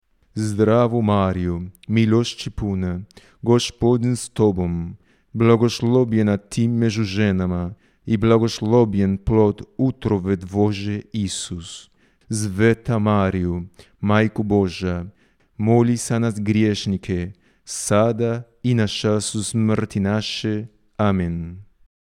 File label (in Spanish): ave maria croata normal